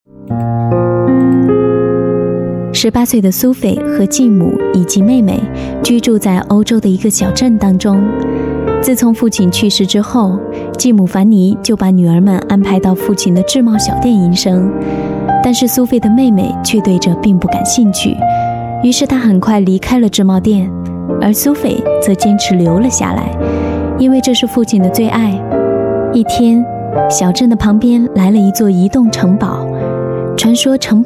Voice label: chinese voice over female narrator

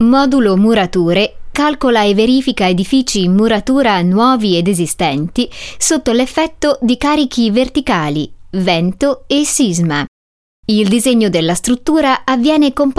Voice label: italian voice over female narrator

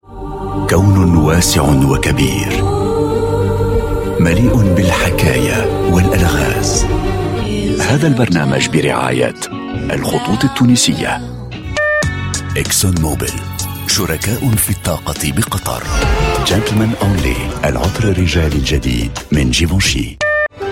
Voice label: arabic voice over male commercial

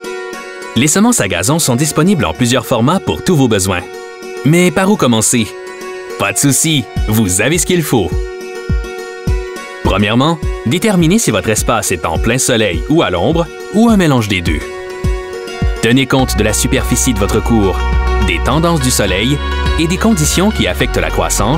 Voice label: french voice over male narrator

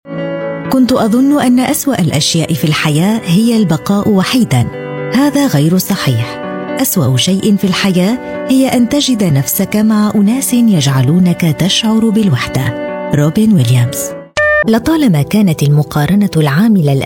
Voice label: arabic voice over female audiobook

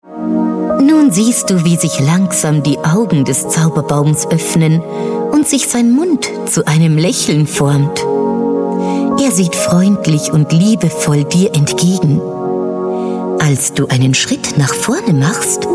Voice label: german voice over female elearning

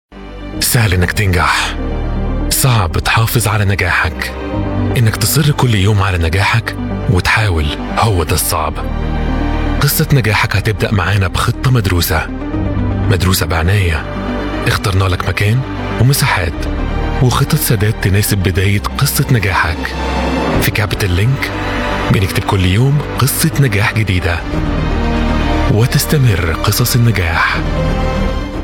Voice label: arabic voice over male commercial

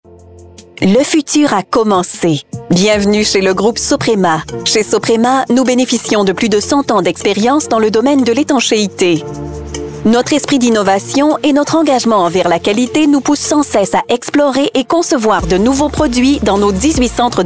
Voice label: french voice over female narrator

